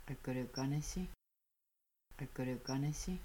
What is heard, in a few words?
they might dry themselves, Kaska; Washing Dishes